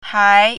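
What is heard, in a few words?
"hai2", Chinese pinyin, second tone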